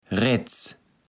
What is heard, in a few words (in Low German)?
"Retz", Platt